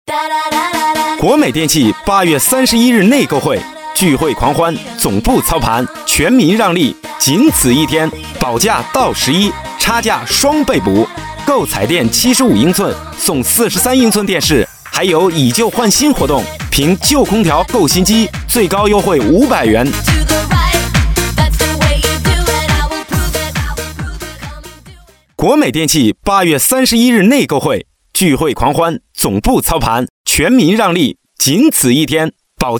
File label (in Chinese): 广告 促销; 国美促销